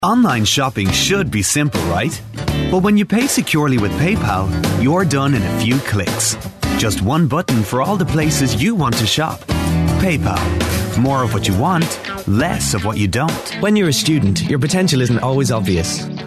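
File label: English, Republic of Ireland, male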